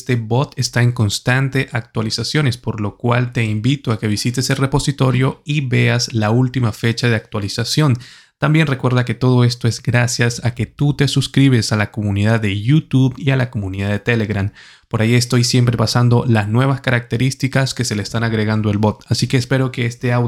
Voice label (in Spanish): nota de voz